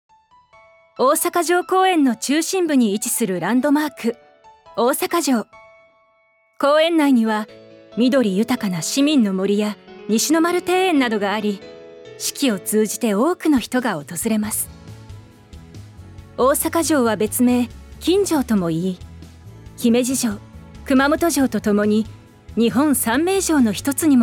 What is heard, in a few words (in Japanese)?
NAサンプル, 声優・ナレーター